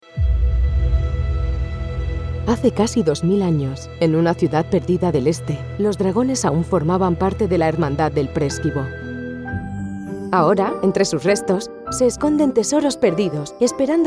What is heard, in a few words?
spanish voice videogame